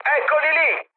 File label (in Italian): enemy detected, mood med, speech it; War Thunder